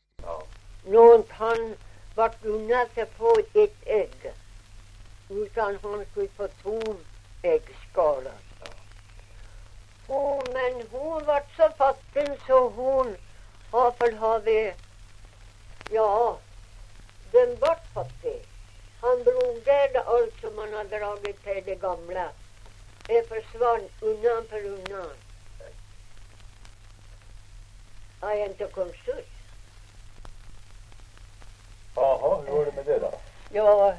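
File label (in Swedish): Ovansjö Gästrikland, dialekter, svenska sagor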